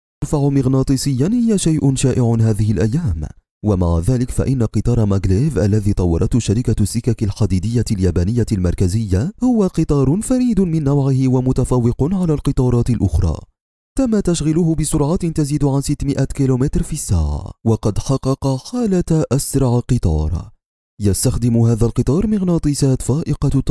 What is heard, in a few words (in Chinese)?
阿拉伯语男 外语 小语种